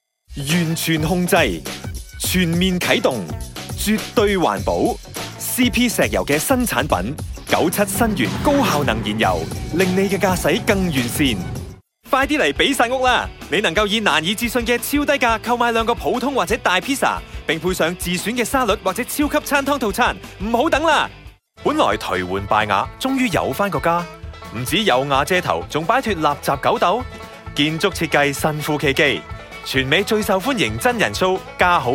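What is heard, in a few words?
Cantonese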